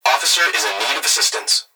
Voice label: Emergency Human Young Male Voice Police Dispatch Officer Is In Need Of Assistance; emergency effects